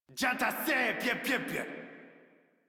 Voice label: Player Spotted Modular Corpus Male; Dialog; Barks; Battle; Warframe